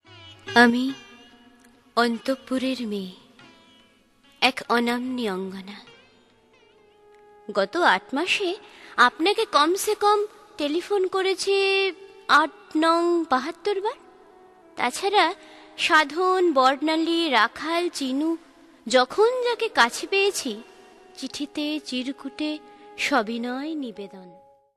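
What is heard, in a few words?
Bengali; Bengali, Male, 20s-30s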